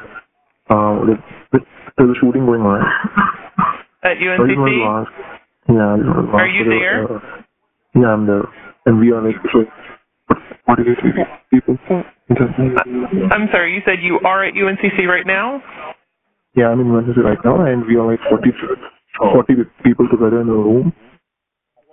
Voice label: 911 call; 410 Library Ln